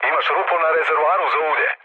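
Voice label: Serbian damaged oil mood low, speech; War Thunder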